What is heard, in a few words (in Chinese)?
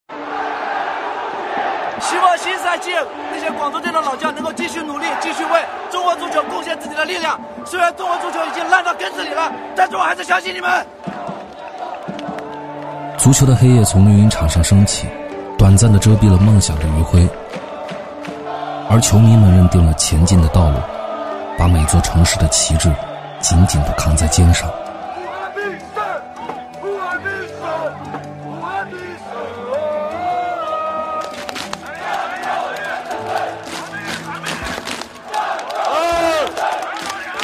男 足球纪录片